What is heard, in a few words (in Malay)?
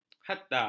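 "hatta", Malay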